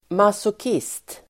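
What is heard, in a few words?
"masochist", Swedish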